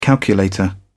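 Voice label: "calculator", English, British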